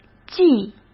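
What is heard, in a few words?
"既", Mandarin Chinese; chinese flashcards; hsk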